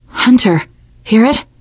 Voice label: heard hunter, teen girl, voice; source engine; left 4 dead 2; survivor